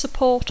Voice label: "support", English